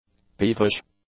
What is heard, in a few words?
"peevish", English; adjectives